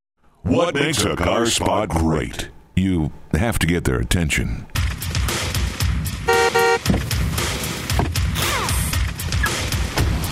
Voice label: car spots, Your Effective, Memorable Voice